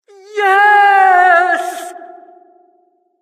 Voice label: announcer killstreak